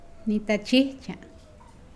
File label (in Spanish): Nawat palabras escupir; pipil